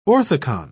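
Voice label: "orthicon", British English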